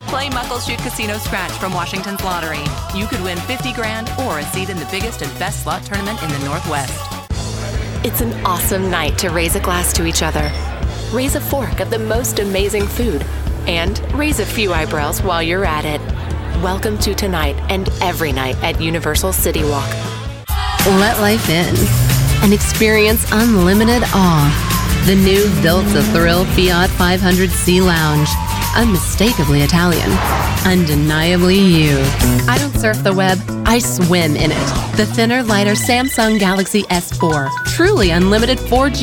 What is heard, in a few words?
Upbeat and Fun, Commercial